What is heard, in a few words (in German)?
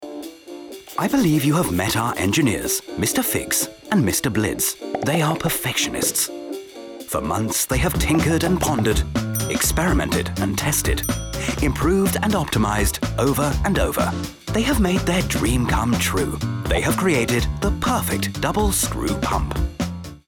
erklaerfilm pumps